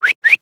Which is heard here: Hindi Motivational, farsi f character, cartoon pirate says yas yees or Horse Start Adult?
Horse Start Adult